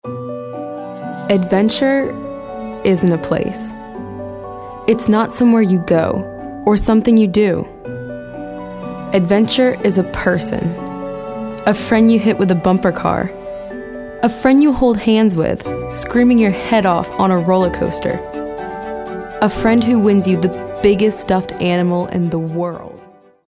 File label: inspirational